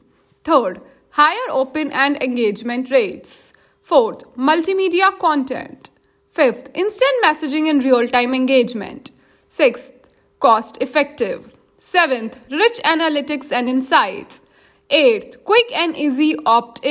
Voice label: marketing voice over; Whtsapp